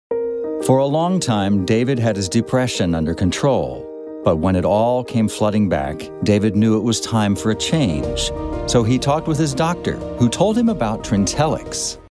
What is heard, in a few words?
Commercial; Trintellix